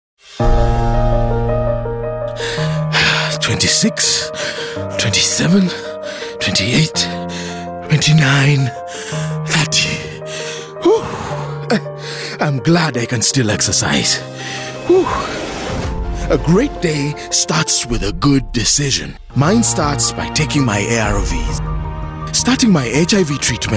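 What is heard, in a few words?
HIV Spot English, radio spot; New on Treatment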